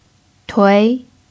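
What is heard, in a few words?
"tui2", Mandarin pinyin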